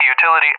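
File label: "utility", English, USA; DCS; Allied Flight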